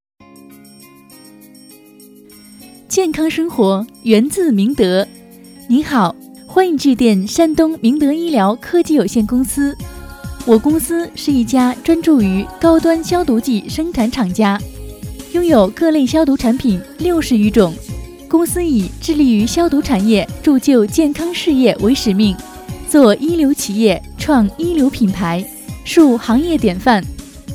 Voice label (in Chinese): 女26; 山东名德医疗科技有限公司